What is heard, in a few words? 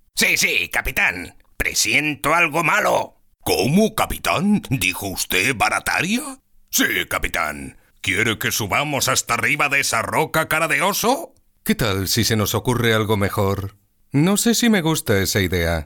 Spanish Spain